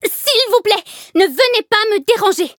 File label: battle, fr; cookie run